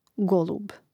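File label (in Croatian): "golub", Hrvatski; mreznik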